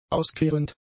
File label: "auskehrend", German; adjectives